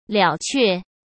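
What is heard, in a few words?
"了却", Mandarin; chinese flashcards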